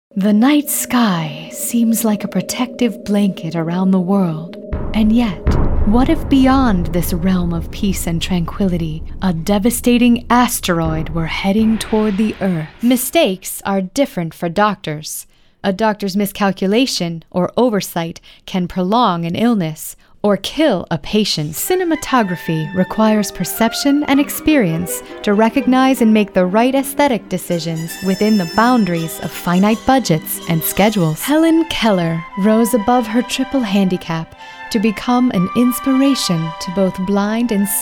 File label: Narrations